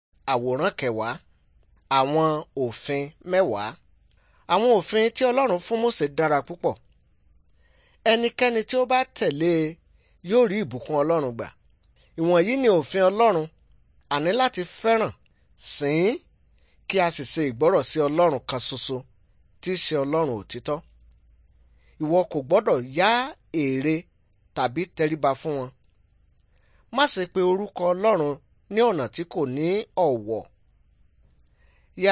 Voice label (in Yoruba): good news yoruba